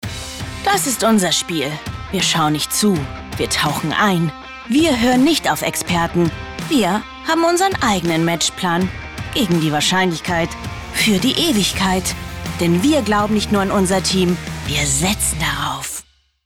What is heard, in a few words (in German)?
Werbung Sportwetten, Sportwette Commercial, German, Schwäbisch